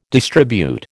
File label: "distribute", American